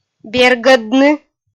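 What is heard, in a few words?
"бергӧдны", Komi-Zyrian, female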